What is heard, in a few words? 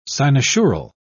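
"cynosural", British English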